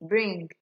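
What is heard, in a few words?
"bring", Nigerian Pidgin, female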